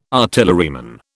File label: "artilleryman", British